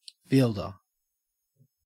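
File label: "fielder", Australian English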